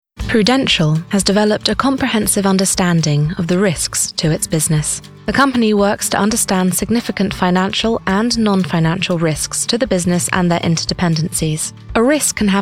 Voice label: VO CORP